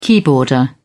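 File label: "keyboarder", British English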